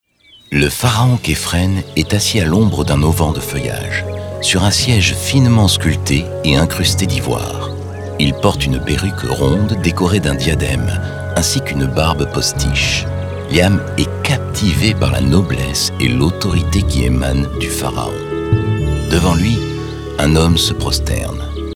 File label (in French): narration; La vengeance de Khéops